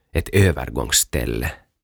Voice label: "Ett övergångställe" "Suojatie"

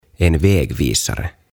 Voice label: "En Vägvisare" "Tienviitta"

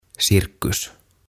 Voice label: "Cirkus" "Sirkus"

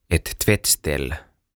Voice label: "Ett Tvättställ" "Lavuaari"

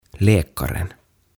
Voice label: "Läkaren" "Lääkäri"

